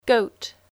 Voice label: "Goat", English